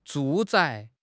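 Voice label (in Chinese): "住宅", Chinese; HSK6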